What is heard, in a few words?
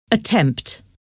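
"attempt", English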